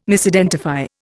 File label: "Misidentify", Indian